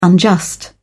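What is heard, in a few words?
"unjust", English, British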